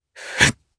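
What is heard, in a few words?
Vox Casting, Japanese; Lucias; kings raid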